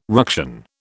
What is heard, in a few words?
"ruction", British